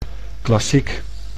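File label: "klassiek", Dutch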